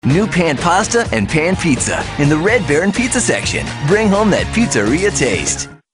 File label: friendly